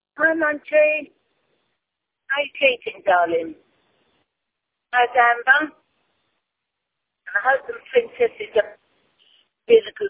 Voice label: voicemail